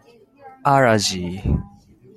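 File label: "allergy", Japanese, male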